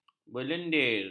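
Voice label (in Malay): "berlendir", Malay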